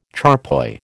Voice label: "charpoy", American